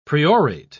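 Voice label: "priorate", British English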